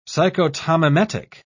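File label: "psychotomimetic", British English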